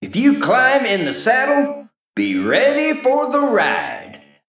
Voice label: Male Mech Flavor

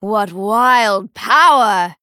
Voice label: Female Dryad Wow

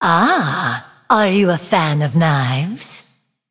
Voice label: Female Nightborne Play

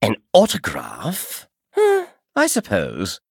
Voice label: Male Night Elf Play

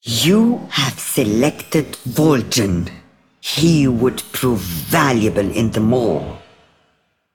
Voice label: Female

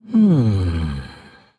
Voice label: Thinking; Reska the Pit Boss; Forsaken

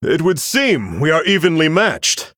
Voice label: Male Human START

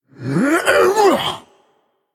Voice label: Male Troll Undead Attack

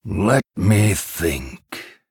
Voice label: Thinking

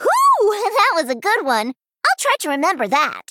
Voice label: Female Gnome Good Fight Hero Emote Well Played

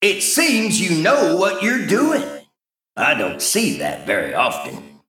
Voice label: Male Mech Ahead

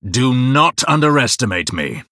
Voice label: Male Blood Elf TRIGGER Powershot

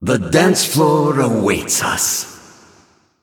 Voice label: Male Ethereal Emote

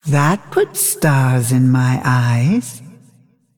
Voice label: Female Dragon Wow

